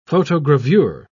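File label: "photogravure", British English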